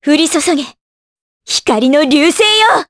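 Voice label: Vox Skill, voices, Japanese; kingsraid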